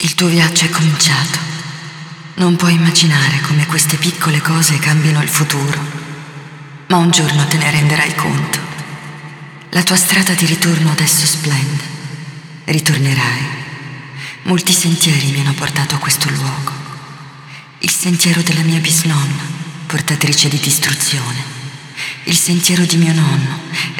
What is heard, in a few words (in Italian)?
Speech, ita